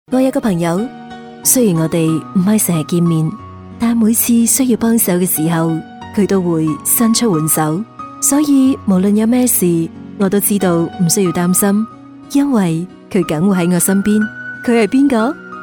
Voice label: 粤女-普通广告样音-无限现金贷款

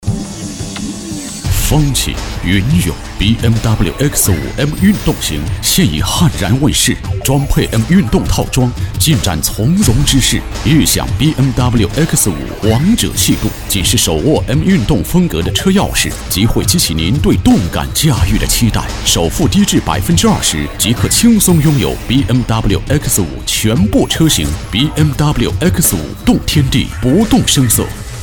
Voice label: 男 汽车广告

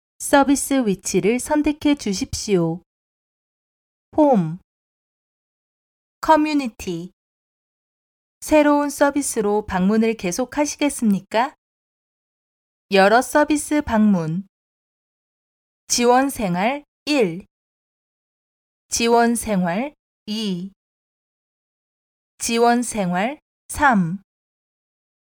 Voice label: 韩语女-课件慢速

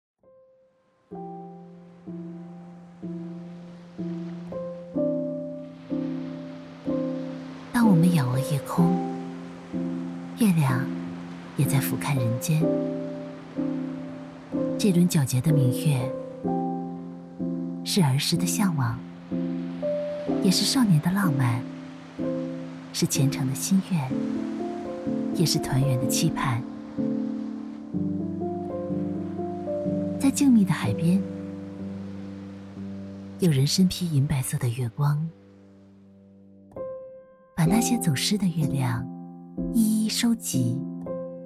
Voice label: 女 素人独白; 收集月亮的人

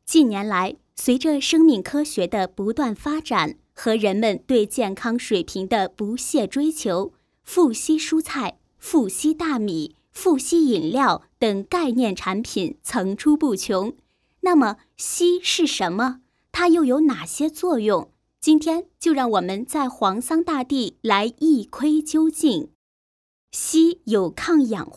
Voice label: 女 专题 富硒产品 干音

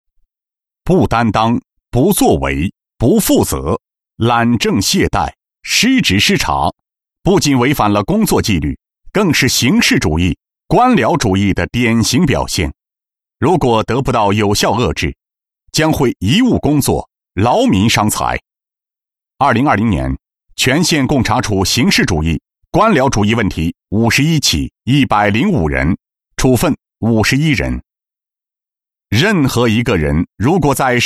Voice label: 男 专题 政府反腐

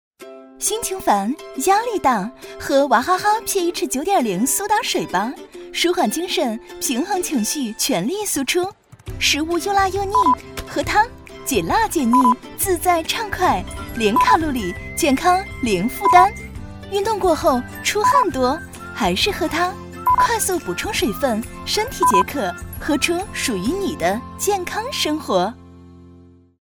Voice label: 女 广告 苏打水; 哇哈哈ph9.0苏打水

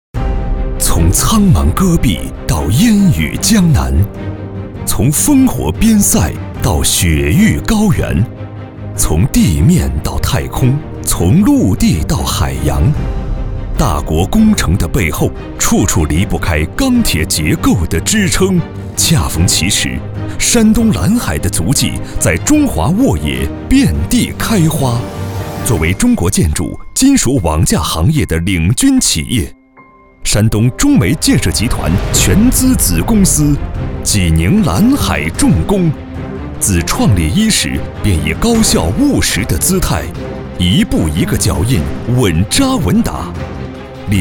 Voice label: 男 专题片 华丽大气风格 重工; 济宁蓝海重工

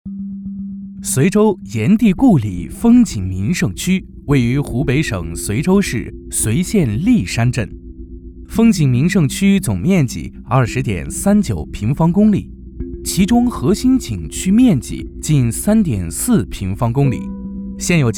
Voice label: 男, 专题纪录, 语音导游

